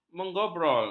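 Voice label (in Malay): "mengobrol", Malay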